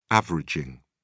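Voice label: "averaging", English, British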